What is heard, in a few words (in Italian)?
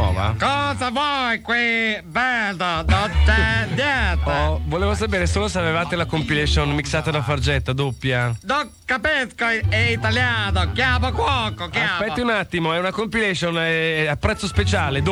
spot ristorante